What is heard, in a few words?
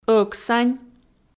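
"ӧксань", Komi, female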